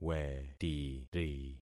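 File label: "wetiri", concatenated syllables; Affixing experiment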